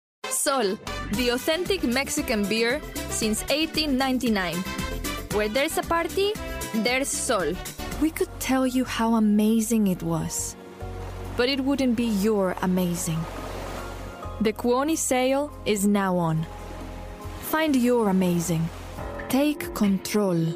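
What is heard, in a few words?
Latin American Spanish accented English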